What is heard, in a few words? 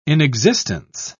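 "inexistence", British English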